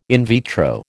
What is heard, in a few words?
"in vitro", American